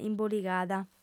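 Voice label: "imboligada", female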